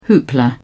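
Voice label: "hoopla", British English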